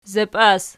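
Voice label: "zapias" nominative, Archi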